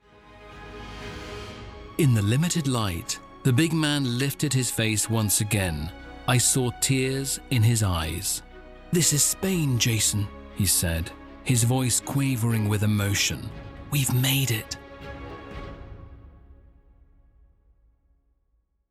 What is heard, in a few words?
english scottish narration